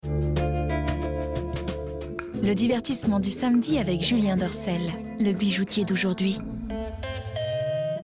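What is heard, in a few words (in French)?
femme classe, voix off féminine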